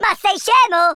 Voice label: stupid, Italian

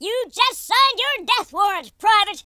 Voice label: "you'll regret that", Drill Sergeant; speech

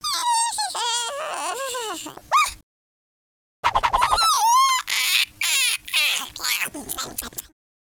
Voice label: idle, speech, Alien; Worms3D

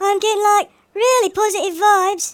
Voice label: excellent, speech, Hippy

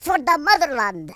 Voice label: kamikaze, Russki

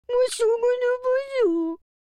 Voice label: sad, speech, French

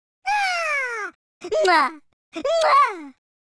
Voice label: happy, speech Italian

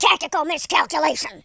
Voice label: "missed", speech

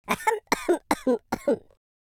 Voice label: idle, speech, Patronising

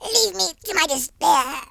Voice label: "go away", Worms Horror speech

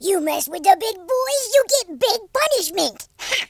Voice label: revenge, American Wrestler

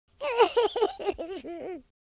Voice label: sad, American Wrestler

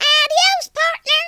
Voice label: "bye bye", Cowgirl; speech

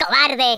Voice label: coward, Spanish

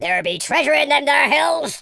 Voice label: "collect", Pirate

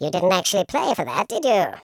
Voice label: "bummer", Patronising

